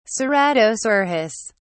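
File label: "ceratosaurus", British English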